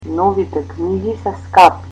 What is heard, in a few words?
new books are expensive, Macedonian